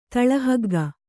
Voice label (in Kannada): "ತಳಹಗ್ಗ", Kannada